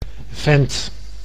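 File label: "vent", Dutch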